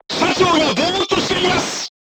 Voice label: voice message commander contused, crew tanks japanese